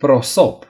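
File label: "towel", Romanian; gcompris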